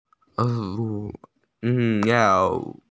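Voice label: "panda", Mandarin; xiong2mao1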